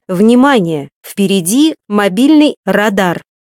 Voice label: Mobile Camera, voice female; RU; MioMap C220; iGO831